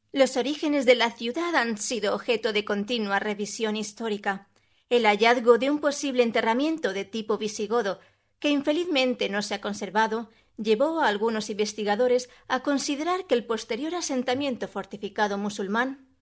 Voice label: locutora spanish voiceover

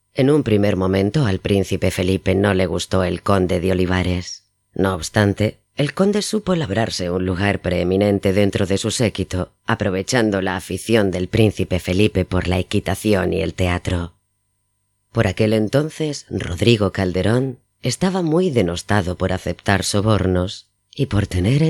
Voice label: locutora spanish voiceover

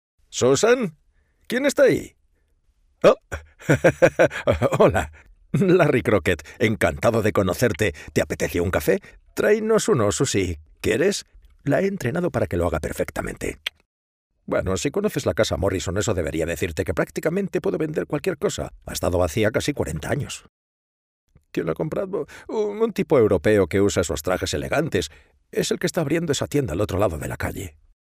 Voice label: locutor spanish voiceover

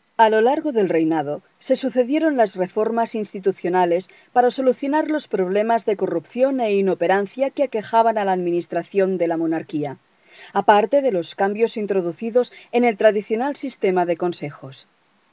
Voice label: locutora spanish voiceover

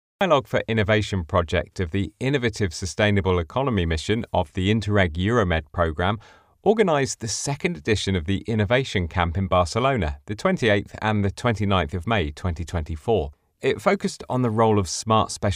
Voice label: locutor ingles english voiceover

